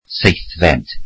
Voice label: "seventh", Welsh